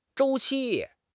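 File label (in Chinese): "周期", Chinese; HSK6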